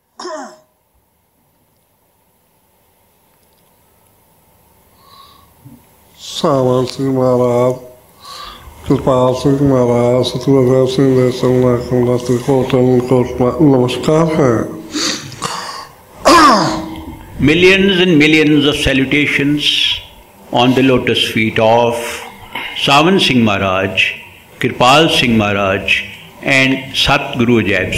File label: Meditation; India Delhi